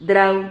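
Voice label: "drau", Spanish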